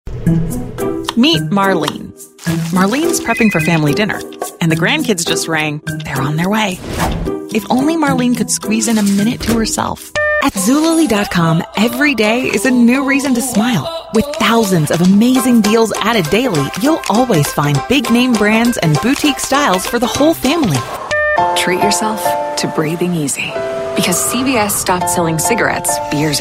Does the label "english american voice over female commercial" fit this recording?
Yes